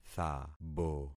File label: "thabo", concat syllables; Affixing experiment